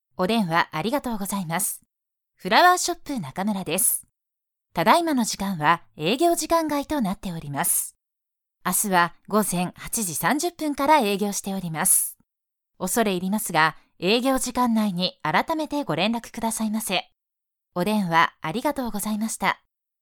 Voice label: female; IVR